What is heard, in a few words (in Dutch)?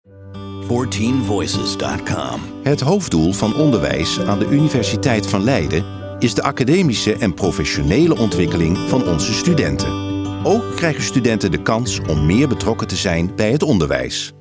Narratief